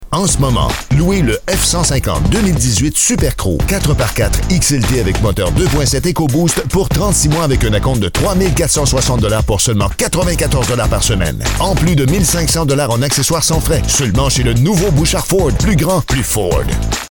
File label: french canadian commercial